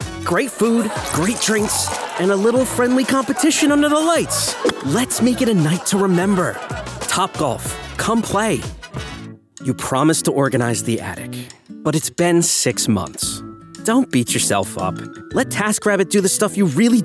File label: Commercial